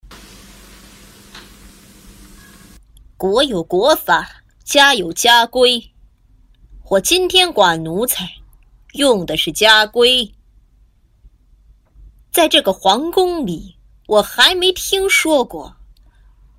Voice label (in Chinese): 古装剧生气类情感